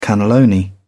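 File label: "cannelloni", British English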